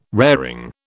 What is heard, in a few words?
"raring", British